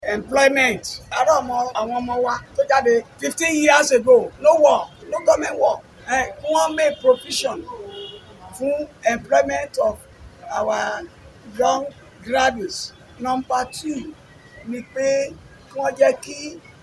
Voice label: Yoruba Man